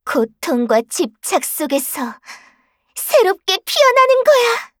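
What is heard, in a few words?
kill Player, Voice Line, Korean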